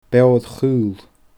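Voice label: "beooir chaol", Scottish Gaelic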